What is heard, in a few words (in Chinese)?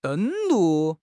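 "登陆", Chinese; HSK6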